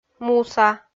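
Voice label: "муса", Komi, female; kpv audio dict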